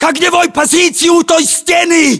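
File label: order cover wall, battlechatter, Russian; localized english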